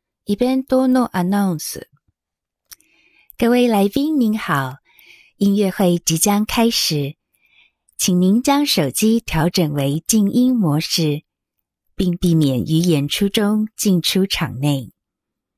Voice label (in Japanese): イベント場内アナウンス